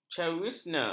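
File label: "cauvinisme", Malay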